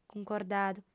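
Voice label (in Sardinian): "cuncordadu", female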